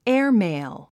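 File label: "airmail", English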